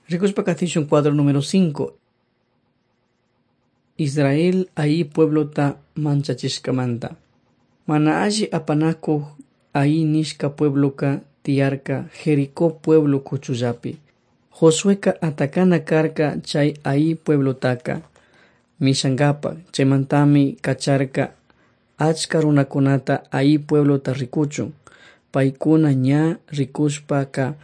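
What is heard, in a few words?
quichua chimborazo, drama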